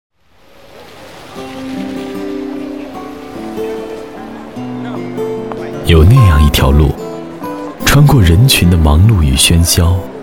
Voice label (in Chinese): 男声 宣传片-电台包装—温情感性 大气浑厚磁性 沉稳, 国语